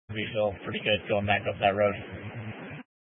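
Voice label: Incident Tac 2, Scanner audio recording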